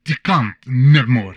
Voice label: humanoid male; Stellaris; humanoids

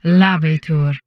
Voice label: humanoid portrait female; Stellaris; humanoids

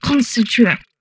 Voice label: humanoid female; Stellaris; humanoids

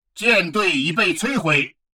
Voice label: advisor notification fleet destroyed, chinese; Stellaris

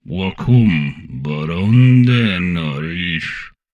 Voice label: humanoid portrait male; Stellaris; humanoids

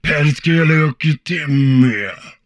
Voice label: humanoid portrait male; Stellaris; humanoids

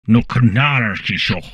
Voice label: humanoid portrait male; Stellaris; humanoids

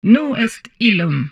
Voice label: humanoid female; Stellaris; humanoids

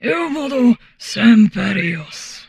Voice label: humanoid portrait female; Stellaris; humanoids

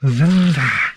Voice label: humanoid portrait male; Stellaris; humanoids

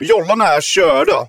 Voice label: advisor notification fleet destroyed; Stellaris

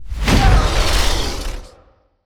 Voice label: portrait synthetic; Stellaris; machineage

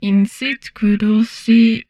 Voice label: humanoid female; Stellaris

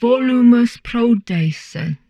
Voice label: humanoid portrait female; Stellaris; humanoids

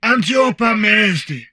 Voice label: humanoid portrait male; Stellaris; humanoids

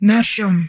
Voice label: humanoid female; Stellaris; humanoids

